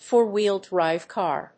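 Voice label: "FOUR-WHEEL DRIVE CAR", English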